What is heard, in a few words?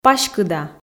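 "паськыда", Komi, female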